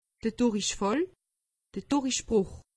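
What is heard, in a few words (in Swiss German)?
"durichfall", Alsatian; medecine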